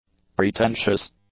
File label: "pretentious", english; adjectives